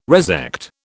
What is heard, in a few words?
"resect", British